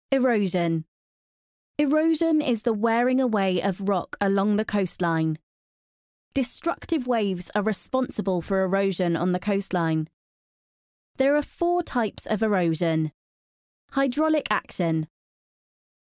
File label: E-LEARNING